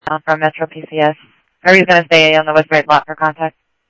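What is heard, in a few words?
Simulcast police department, scanner audio trunk; Mesa Simulcast; MESA PD 1 Fiesta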